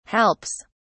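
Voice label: "helps", British English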